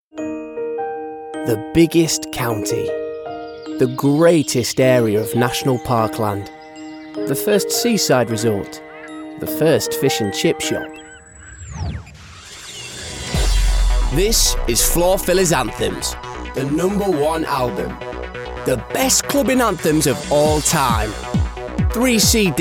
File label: Commercial Reel; Native Accent; Yorkshire; Expressive and Versatile; 20-30